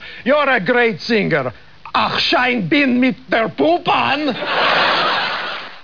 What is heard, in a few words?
german